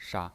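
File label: "sha", Mandarin Chinese pinyin, neutral tone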